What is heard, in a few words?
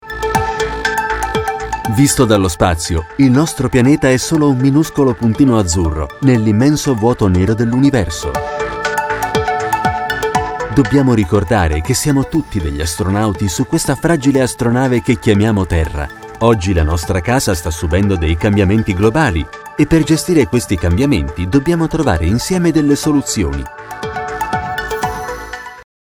Documentary IT; ESA